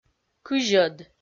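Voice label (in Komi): "кызьӧд", Komi, female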